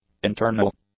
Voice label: "internal", english; adjectives